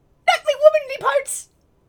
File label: Voices Female